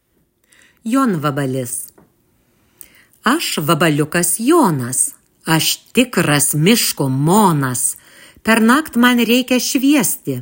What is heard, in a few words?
narration